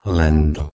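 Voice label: "lendh", Proto-Indo-European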